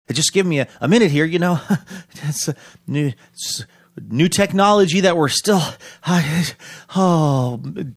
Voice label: reenacting local news traffic reporter